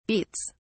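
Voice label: "beets", en, uk British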